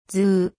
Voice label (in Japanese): "ず", 日本語; 基礎日語五十音聽力